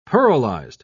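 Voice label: "pearlized", British English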